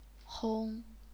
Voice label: "hong1", chinese pinyin

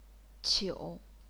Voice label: "qiu3", chinese pinyin

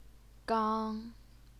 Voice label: "gang1", Chinese pinyin

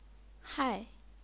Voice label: "hai4", chinese pinyin

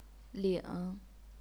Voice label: "ling3", chinese pinyin

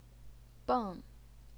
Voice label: "beng4", Chinese pinyin

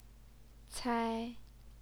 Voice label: "cai1", Chinese pinyin

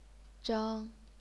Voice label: "zheng1", chinese pinyin

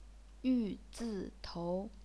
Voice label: yu zi tou, chinese pinyin

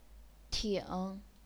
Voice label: "ting3", chinese pinyin

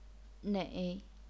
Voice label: "nei3", chinese pinyin

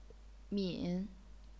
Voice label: "min3", chinese pinyin

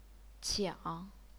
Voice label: "qiang3", chinese pinyin

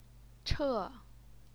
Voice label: "che4", Chinese pinyin

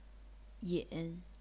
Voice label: "yin3", chinese pinyin

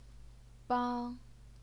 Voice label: "bang1", Chinese pinyin

